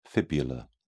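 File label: "fibular", English, British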